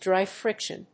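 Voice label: "Dry friction", English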